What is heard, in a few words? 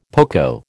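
"poco", American English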